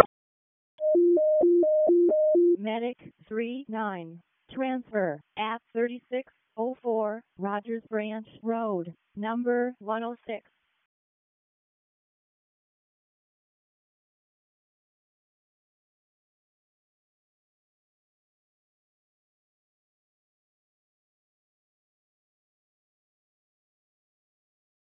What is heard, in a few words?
EMS ALERT, p25